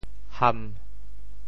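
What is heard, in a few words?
"ham1", Teochew; 潮州; 贝扇蚶; 扇贝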